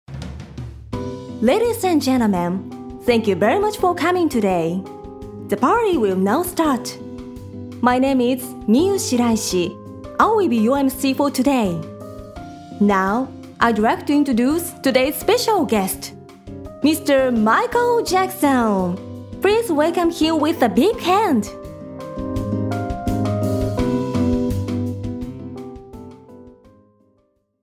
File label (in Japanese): 英語サンプル